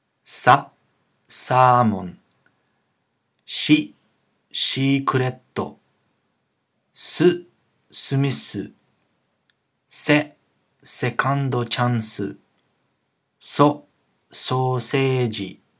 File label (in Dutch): Katakana uitspraak